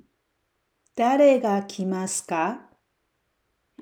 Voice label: japanese Dialogue